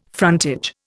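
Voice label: "frontage", Indian English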